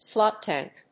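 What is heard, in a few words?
"slop tank", English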